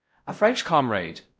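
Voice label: Voice Acting Spanish, male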